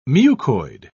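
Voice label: "mucoid", British English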